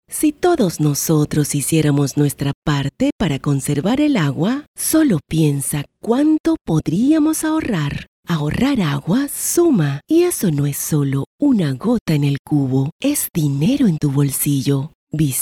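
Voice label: Latin American Spanish female Commercial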